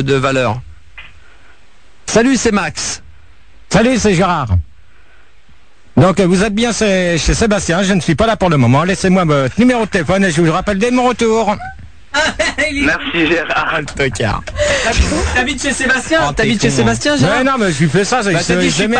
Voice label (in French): message repondeur